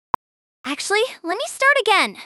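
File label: speaker female fast high, start again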